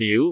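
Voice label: "fiu2", Cantonese; google B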